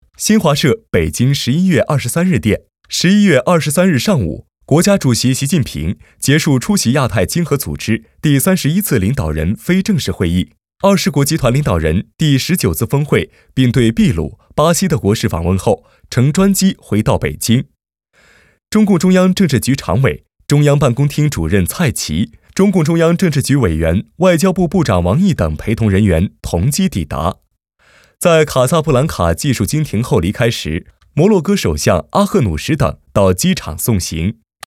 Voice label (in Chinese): 男 新闻风格; 专题